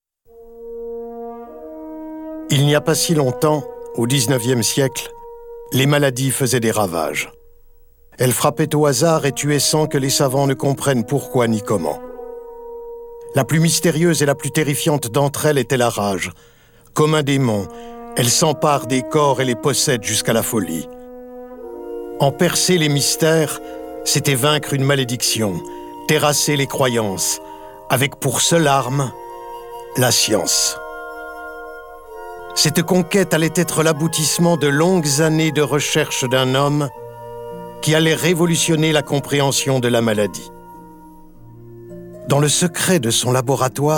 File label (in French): Commentaire; Pasteur